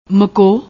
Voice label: "mako", Punjabi; ਮਕੋ